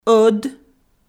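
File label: "ӧд", Komi-Zyrian, female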